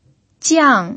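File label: "jiang", fourth tone, Mandarin Chinese pinyin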